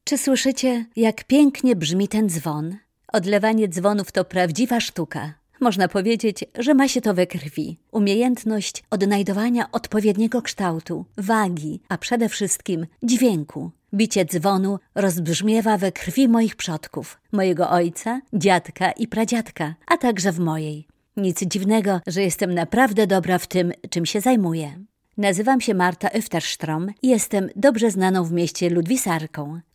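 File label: Polish female Audi Guide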